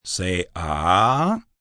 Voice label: Say Aah, Doctor, male: 'Say ahhh', medical, hospital, doctor's office, (human voice speaking); Talking; VoiceBox